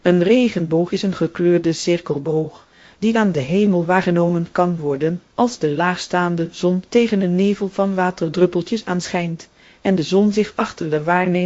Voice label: speaker, nl NL